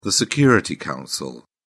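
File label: "security council", British English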